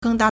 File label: "geng1 da4", Chinese